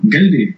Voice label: "stop", basque